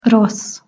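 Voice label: "rossz", Hungarian pronunciation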